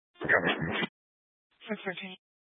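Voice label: Sheriff Dispatch, Scanner audio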